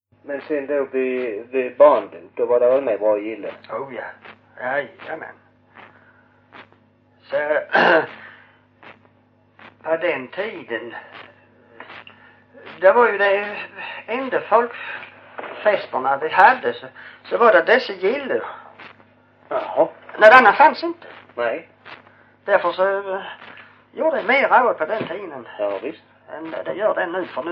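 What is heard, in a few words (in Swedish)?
Hörja Skåne, svenska sagor dialekter; Folkminnen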